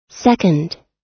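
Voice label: "second", US English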